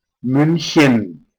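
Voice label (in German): "Muenchen", German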